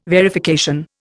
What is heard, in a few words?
"verification", Indian